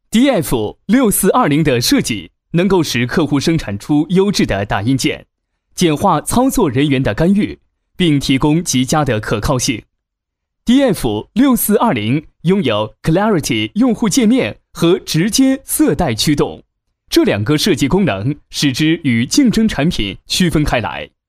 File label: locutor chino chinese mandarin voiceover